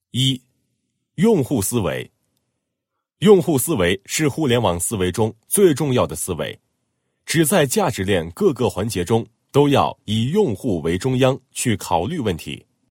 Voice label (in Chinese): 男 解说; 互联网加农业